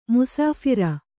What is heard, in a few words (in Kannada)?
"ಮುಸಾಫರ", kannada